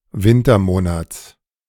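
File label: "Wintermonats", German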